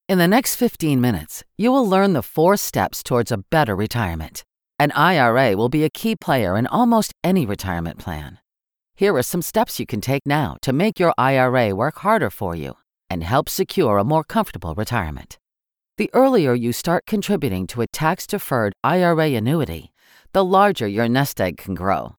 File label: E-Learning